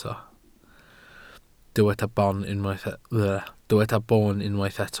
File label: "boon", Welsh